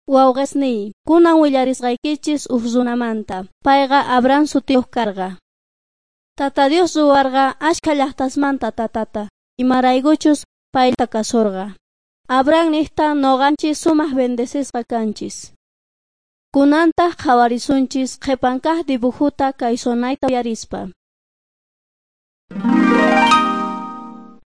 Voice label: beginning with god quechua s bolivian cochabamba